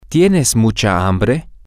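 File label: Are you Pablo very hungry, Spanish, male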